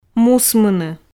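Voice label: "мусмыны", Komi, female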